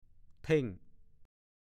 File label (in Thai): "เท่ง", ภาษาโคราช